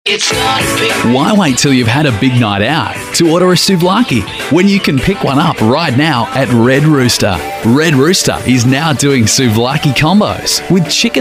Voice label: male talent retail